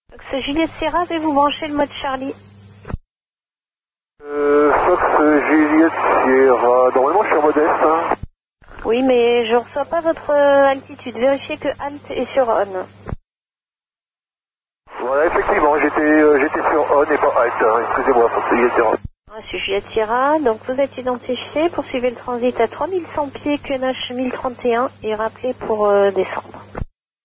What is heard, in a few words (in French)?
contrôleuse demande mode ALT